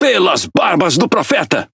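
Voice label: Brazilian Portuguese Speech Quick Commands "Caramba" Beep; World Of Warships; PT BR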